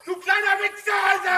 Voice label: angry; spackenmobs